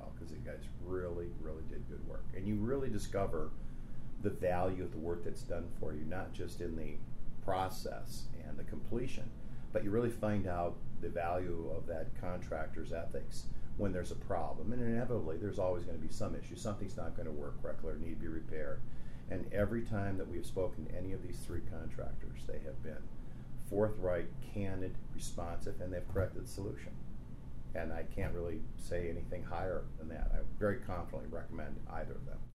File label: French Accent